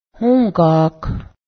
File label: goose, Cayuga; hǫ́ga:k